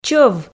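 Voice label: "чӧв", Komi, female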